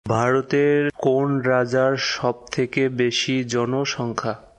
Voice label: Bengali, Bangladeshi Accent; Which Indian state has the largest population?; Bharoter kon rajjer sob teke bes